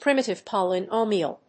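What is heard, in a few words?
"primitive polynomial", English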